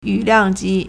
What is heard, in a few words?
"yu3liang4ji2", Chinese